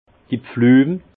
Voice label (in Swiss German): "pflumm", alsace; vin; pruneau